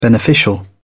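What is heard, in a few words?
"beneficial", English, British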